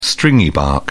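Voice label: "stringybark", British English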